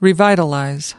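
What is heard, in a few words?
"revitalize", American English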